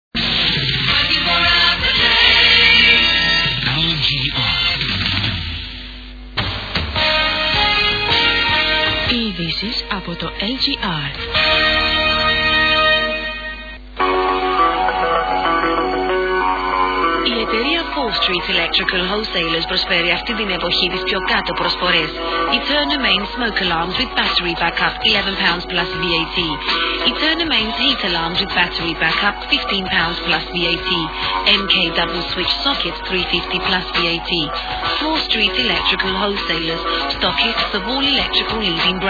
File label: london greek radio